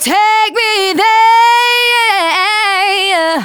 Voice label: Phrase Female; Vengeance Samples; Phrases